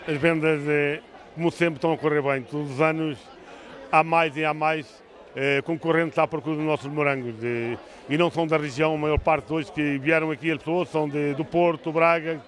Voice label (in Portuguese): vox pop